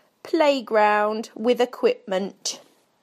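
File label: "playground with equipment", British English; PictureDictionary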